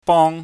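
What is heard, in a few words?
"bong", Cantonese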